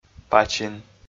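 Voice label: "пачин", Komi, male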